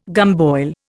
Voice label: "gumboil", Indian